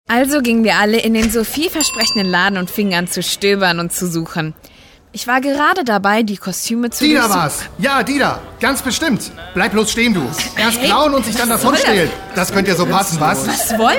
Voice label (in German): Hörprobe Hörspiel; 5 Geschwister